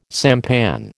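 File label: "sampan", American English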